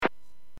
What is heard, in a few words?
Aviation 121.5 Emergency